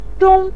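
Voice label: "zhong" neutral tone, Mandarin Chinese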